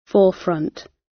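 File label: "forefront" British English; Time, history; Thời gian, lịch sử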